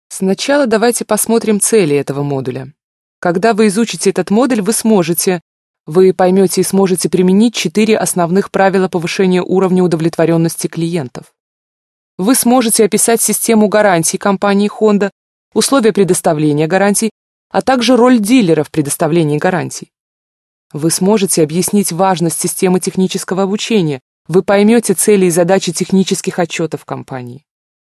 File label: russian female